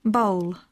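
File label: "bowl", English; poitiers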